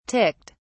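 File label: "ticked", British English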